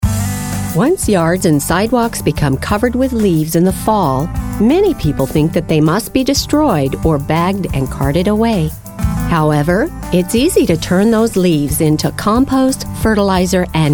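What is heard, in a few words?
female narration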